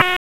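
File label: Raleigh Police Special Operations Tactical, p25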